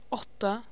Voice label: Faroese